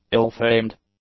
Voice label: "ill-famed", English; adjectives

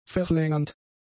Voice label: "verlängernd", German; adjectives

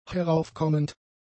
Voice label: "heraufkommend", German; adjectives

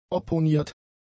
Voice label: "opponiert", German; adjectives

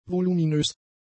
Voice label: "voluminös", German; adjectives

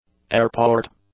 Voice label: "airport", English; nouns

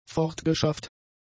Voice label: "fortgeschafft", German; adjectives

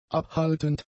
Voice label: "abhaltend", German; adjectives

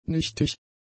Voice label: "nichtig", German; adjectives

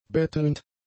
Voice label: "bettelnd", German; adjectives

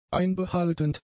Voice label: "einbehaltend", german; adjectives

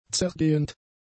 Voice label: "zergehend", German; adjectives

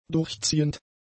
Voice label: "durchziehend", german; adjectives

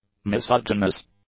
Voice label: "misogynous", English; adjectives

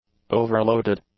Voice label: "overloaded", English; adjectives

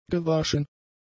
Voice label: "gewaschen", German; adjectives

